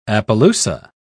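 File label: "appaloosa", British English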